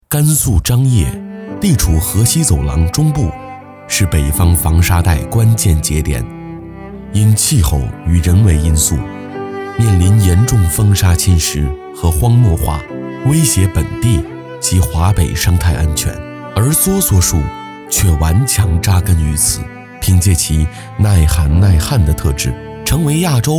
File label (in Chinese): 男 中文 纪录片专题; 甘肃